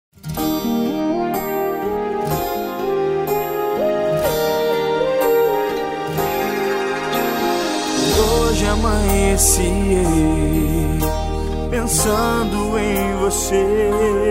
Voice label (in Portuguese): ANIV ROMANTICO DISTANTE FEM; telemensagem; aniversario romantico